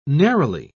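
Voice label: "neroli", British English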